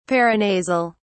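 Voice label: "paranasal", British English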